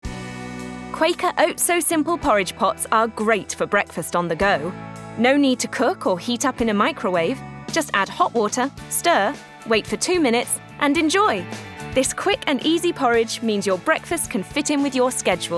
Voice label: Commercial; Quaker Oats